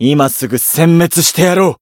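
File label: Voice encounter Japanese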